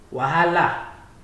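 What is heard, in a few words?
"wahala", Nigerian Pidgin, male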